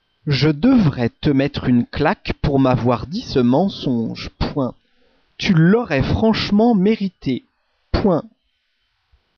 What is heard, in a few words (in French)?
dictee